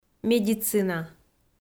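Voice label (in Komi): "медицина", Komi-Zyrian, female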